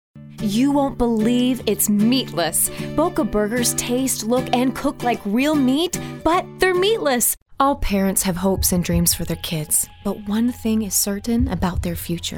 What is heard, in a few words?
Commercial